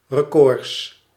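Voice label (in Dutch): "records", Dutch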